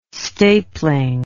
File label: "stapling", English